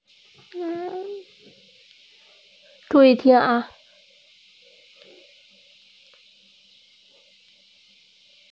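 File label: "sou1", Mandarin Chinese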